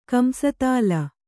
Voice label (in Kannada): "ಕಂಸತಾಳ", Kannada